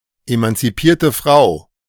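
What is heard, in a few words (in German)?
"emanzipierte Frau", German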